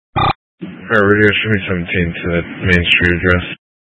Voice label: Mutual Aid-Intersystem Interop A, scanner; AMBER Alert